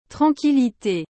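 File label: "tranquillité", French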